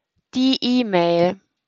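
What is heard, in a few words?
"E-Mail", German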